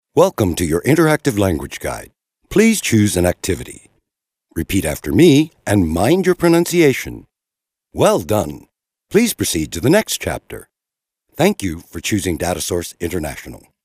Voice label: US male corporate